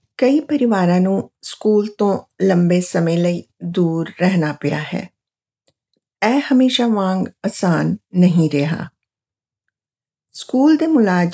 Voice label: Message Punjabi

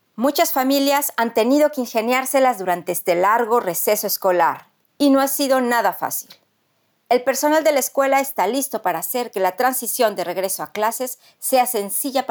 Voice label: Message Espagnol

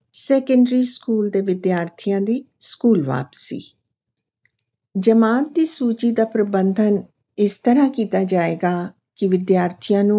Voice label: Punjabi

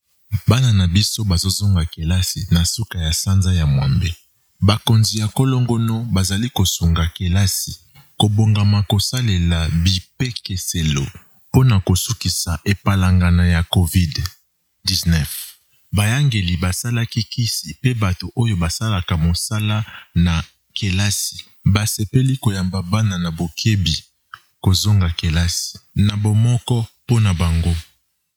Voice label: Message Lingala

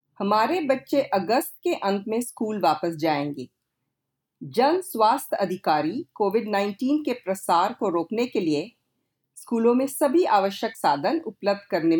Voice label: Message Hindi

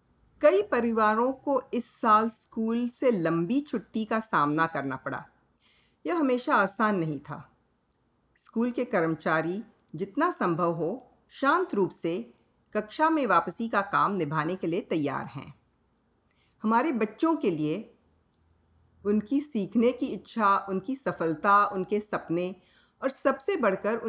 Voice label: Message Hindi

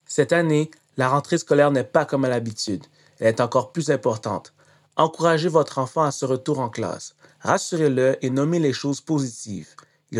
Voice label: Message FR

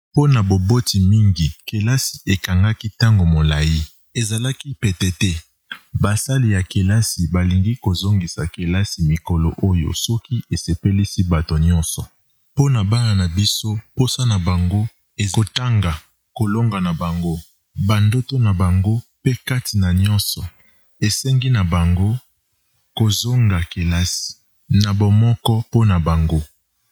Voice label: Message Lingala

